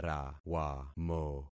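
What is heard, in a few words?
"rawamo", concatenated syllables; Affixing experiment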